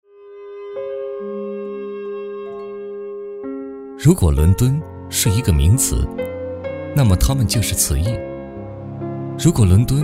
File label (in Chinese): 国语男声配音 年轻 温婉; 白金汉宫介绍; 央视老师